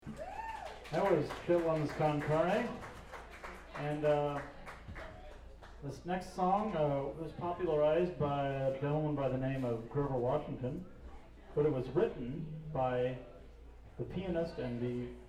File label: banter; One World - December 2 2000